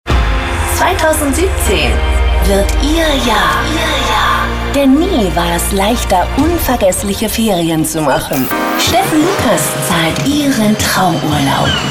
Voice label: Stationvoice Urlaubsspecial; Radio PSR

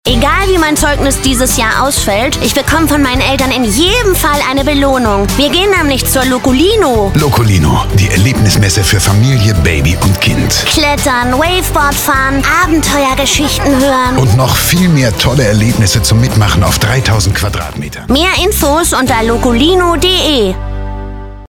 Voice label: Funkspot Kinderstimme, werbung; Lokolino

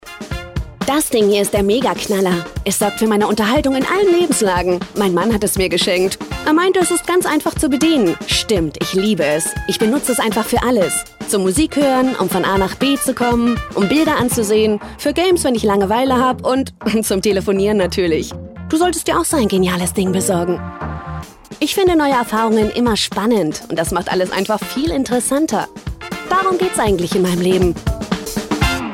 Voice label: Messefilm; imagefilme; Procter and Gamble

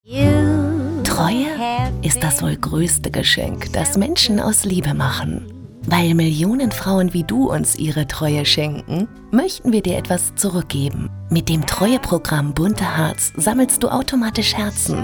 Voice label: TV Spot, werbung; BUNTE

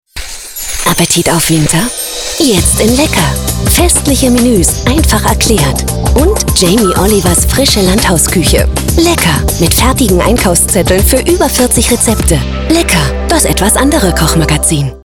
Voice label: TV Spot, werbung; Lecker; Jamie Oliver

